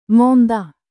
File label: "mandag", swedish